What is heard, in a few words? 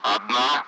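Speech, Russian; Allied Flight; Digits; DCS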